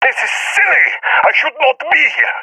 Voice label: scared; vo; agents